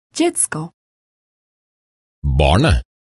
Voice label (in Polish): dziecko